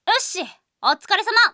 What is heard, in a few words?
logoff, Japan Anime Girls; Windows; Bing; Nanao